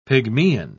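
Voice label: "pygmean", British English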